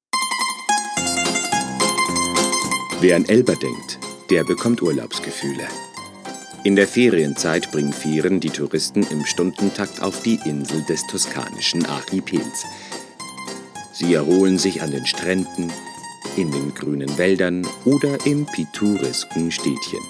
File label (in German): Doku Voiceover; Elba; Sprachproben